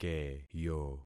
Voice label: "keyo", concat syllables; Affixing experiment

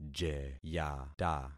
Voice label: "jeyata", concat syllables; Affixing experiment

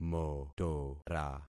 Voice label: "motora", concat syllables; Affixing experiment

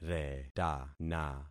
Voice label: "retana", concat syllables; Affixing experiment

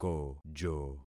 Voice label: "kojo", concat syllables; Affixing experiment

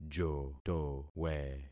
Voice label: "jotowe", concat syllables; Affixing experiment

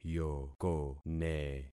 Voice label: "yokone", concat syllables; Affixing experiment

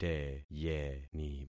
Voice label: "teyeni", concat syllables; Affixing experiment

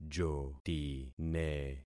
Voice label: "jotine", concat syllables; Affixing experiment

